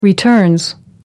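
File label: "returns", British English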